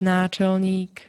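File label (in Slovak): "náčelník", Slovak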